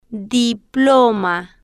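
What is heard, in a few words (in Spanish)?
"diploma", Spanish, female, slow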